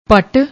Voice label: "pat", Punjabi

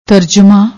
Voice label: "tarajmaa", Punjabi; ਤਰਜਮਾ

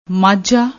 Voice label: "maajhaa", Punjabi; ਮਾਝਾ

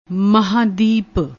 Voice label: "mahandip", Punjabi; ਮਹਾਂਦੀਪ

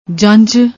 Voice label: "janjj", Punjabi

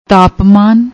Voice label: "taapmaan", Punjabi; ਤਾਪਮਾਨ

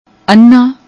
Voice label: "annaa", Punjabi; ਅੰਨ੍ਹਾ

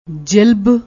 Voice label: "jilab", Punjabi

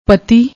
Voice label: "pati", Punjabi

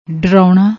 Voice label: "daraouna", Punjabi

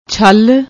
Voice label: "chhal", Punjabi

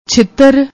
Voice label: "chhitr", Punjabi

